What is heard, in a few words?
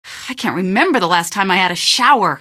question, female; Garry's Mod; hl2